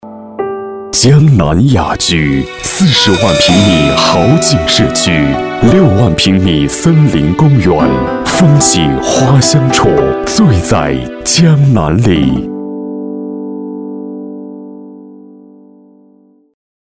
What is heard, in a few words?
boy; Chinese; guanggao